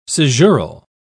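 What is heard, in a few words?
"caesural", British English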